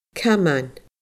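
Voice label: "caman", Scottish Gaelic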